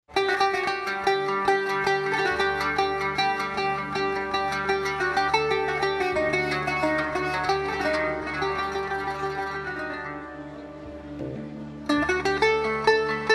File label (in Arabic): الصوت الرجالي انتباه, التسجيل و التعليق الصوتي